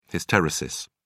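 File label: "hysteresis", British English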